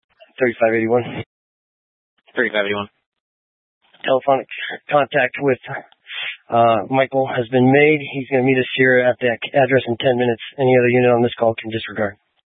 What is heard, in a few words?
Police 1 Dispatch